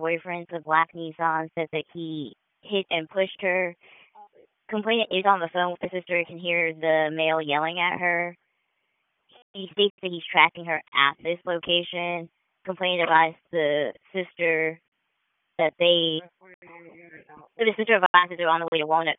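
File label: Raleigh Police Southwest District Dispatch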